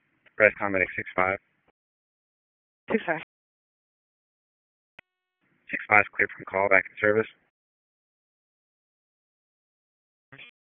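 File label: EMS DISP, radio; wake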